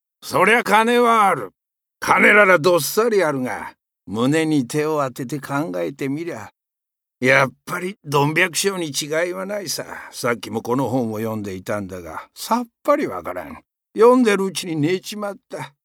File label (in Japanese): 成金老人